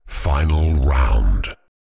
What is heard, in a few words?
Deep male voice final round